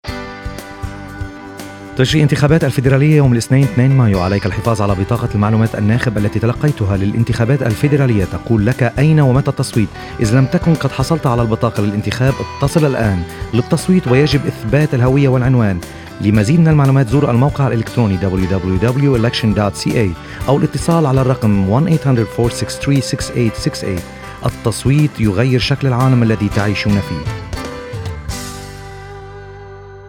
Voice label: arabic, radio ad

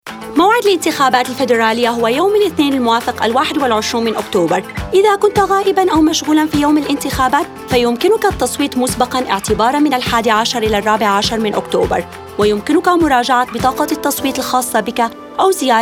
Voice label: early voting option Arabic, radio advert

